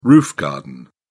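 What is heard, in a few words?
"roof garden", English, British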